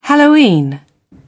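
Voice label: "halloween", British English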